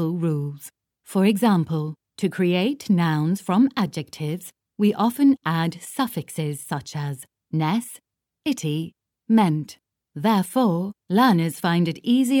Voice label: english british e-learning

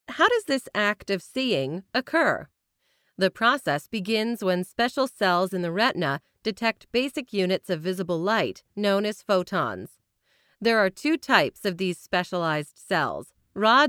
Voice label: english canadian medical narration; professional English voice actor

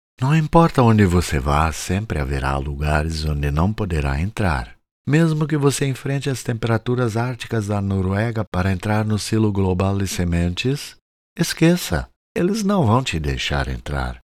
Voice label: portuguese brazilian commercial

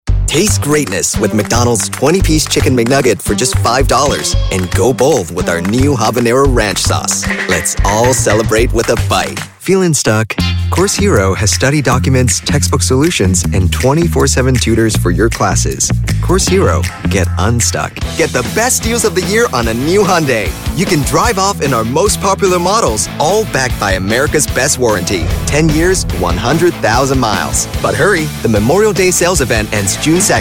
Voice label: English American commercial, adult

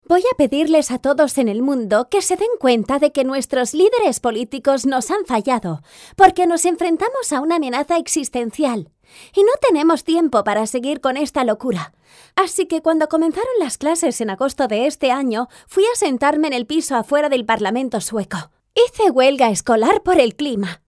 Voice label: spanish impersonations; professional Spanish and Catalan voice actor

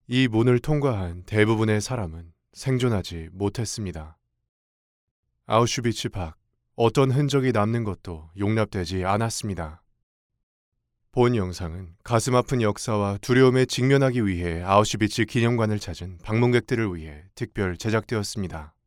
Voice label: korean documentaries

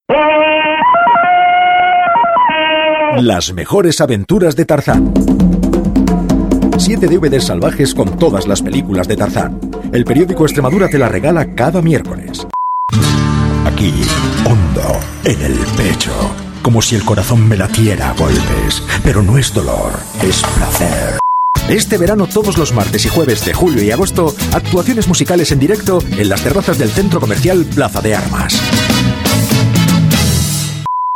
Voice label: spanish radio imaging

